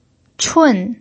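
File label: "chun" fourth tone, Mandarin Chinese pinyin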